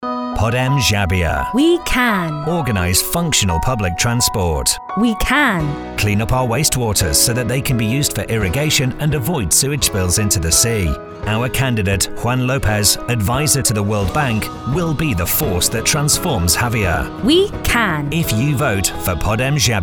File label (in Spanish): anuncios leidos